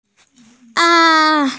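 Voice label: "a1", Mandarin Chinese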